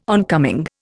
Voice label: "oncoming", Indian

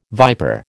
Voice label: "viper", American

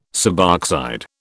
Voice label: "suboxide", British

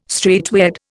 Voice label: "straightway", Indian; Dictionary

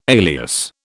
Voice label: "alias", British

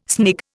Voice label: "snig", Indian

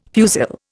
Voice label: "fusil", Indian

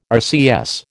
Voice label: "RCS", American

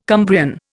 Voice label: "Cumbrian", Indian

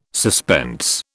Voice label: "suspense", British

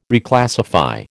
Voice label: "Reclassify", American

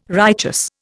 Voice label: "righteous", Indian

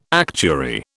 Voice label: "actuary", British

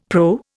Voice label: "PRO", Indian; Dictionary

